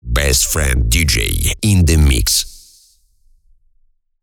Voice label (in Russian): Войс дроп - Мужской голос